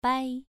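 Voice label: "bai" Mandarin, second tone, pronunciation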